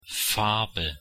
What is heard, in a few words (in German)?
"Farbe", de